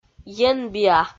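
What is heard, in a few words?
"енбиа", Komi, female; kpv audio dict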